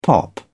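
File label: "pop off", British English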